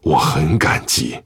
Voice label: Thanks, zh